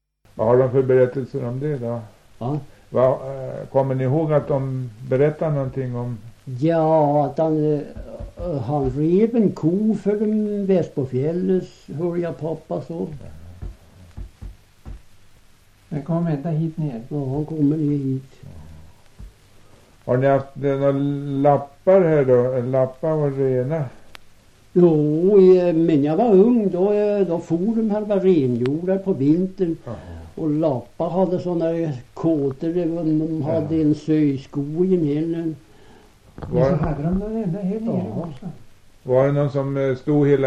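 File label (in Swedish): Högsjö Ångermanland, dialekter; Folkminnen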